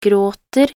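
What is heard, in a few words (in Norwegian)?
"gråter", Norwegian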